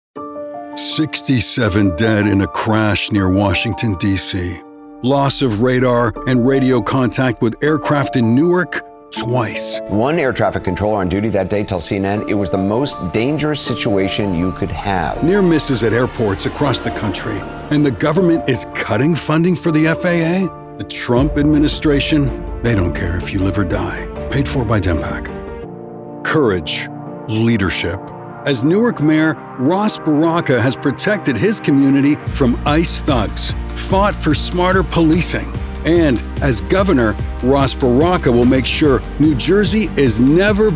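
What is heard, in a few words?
Democrat Voiceover, Male Democratic Voices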